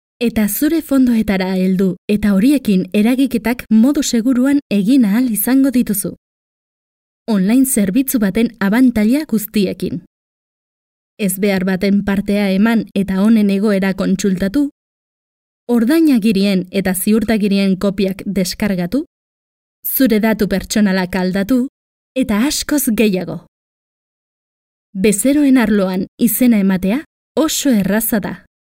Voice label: FEMALE BASQUE EUSKERA, Soft, Young, Determined Female Voice